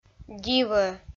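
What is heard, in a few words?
"дивӧ", Komi, female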